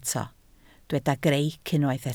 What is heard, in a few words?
"greic", Welsh